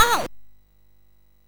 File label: Bouncy Balls speech, MOS 6581